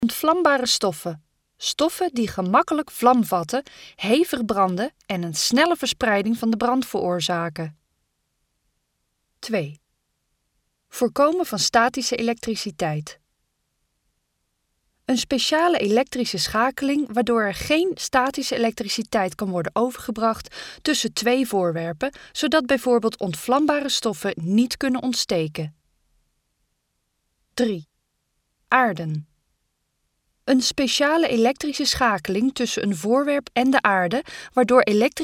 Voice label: Dutch female